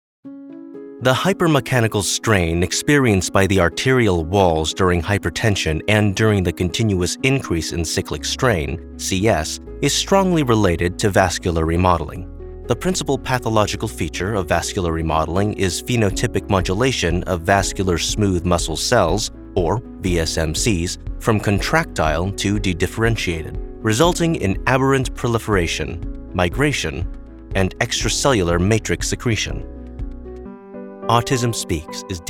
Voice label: Medical Narration

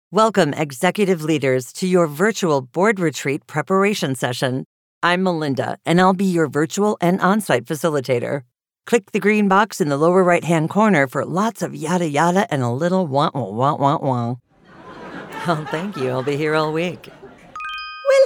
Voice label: eLearning

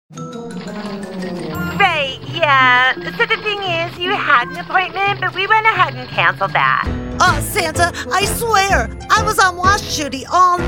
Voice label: Characters, voiceover; Animation & Character Voiceover

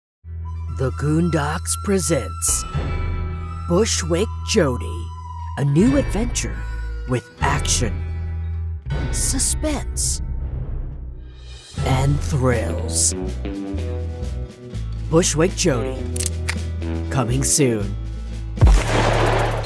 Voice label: Trailer

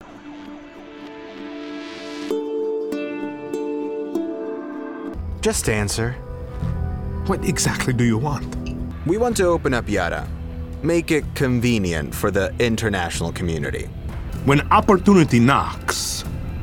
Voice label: Audio Drama

